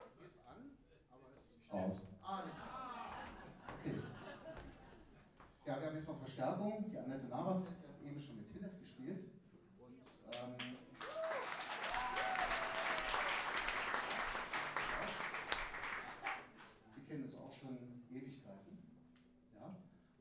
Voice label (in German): Ansage; Jazz-Club Trier e.V. (JCT); 25. Jazzgipfel (Tufa, Trier)